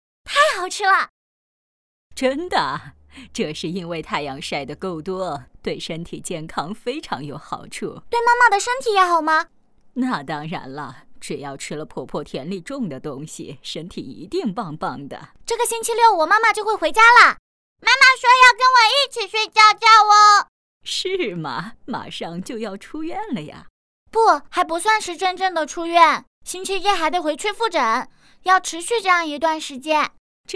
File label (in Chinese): 女 角色 姐姐 妹妹 奶奶 动漫配音; 龙猫动漫配音